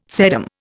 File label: "sedum", Indian